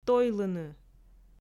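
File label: "тойлыны", Komi, female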